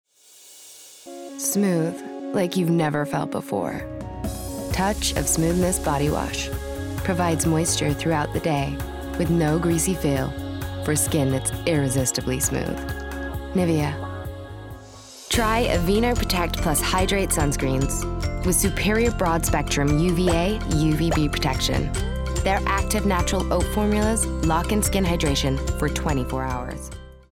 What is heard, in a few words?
Commercials Montage American